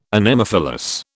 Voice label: "Anemophilous", British